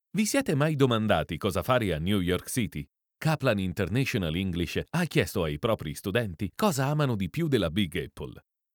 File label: Audition ITA